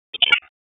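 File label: Dispatch 1, P25; wake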